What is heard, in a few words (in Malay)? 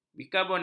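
"bikarbonat", Malay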